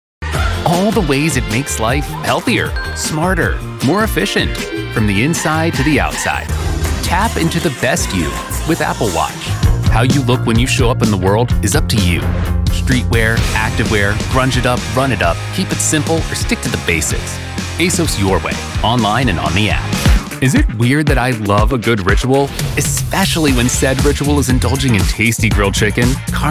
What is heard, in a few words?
Commercials